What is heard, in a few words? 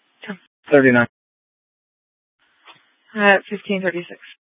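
Scottsdale PD North Dispatch; Nth Dispatch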